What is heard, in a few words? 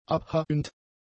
"abhauend", German; adjectives